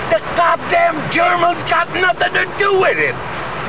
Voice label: GERMAN